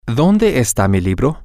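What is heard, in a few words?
where is my book, Spanish, male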